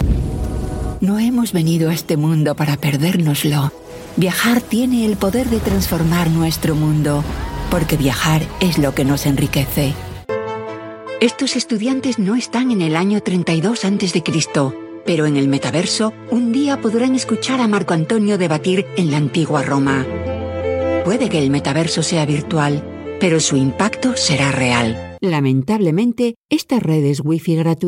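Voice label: spanish voice showreel, Female Castillian Spanish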